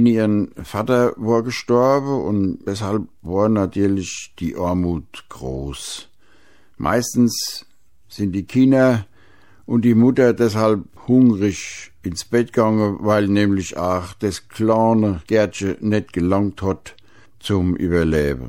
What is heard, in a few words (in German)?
Schatzsucher, Mundart Hessen